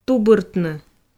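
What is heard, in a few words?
"тубыртны", Komi, female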